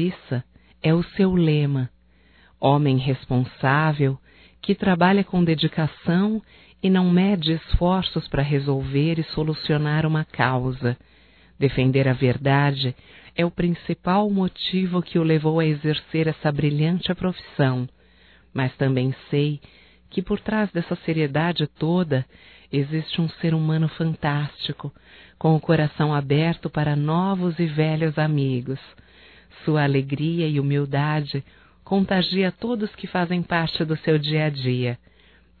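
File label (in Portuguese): Homenagem ao advogado, voz feminina; Telemensagens; HOMENAGENS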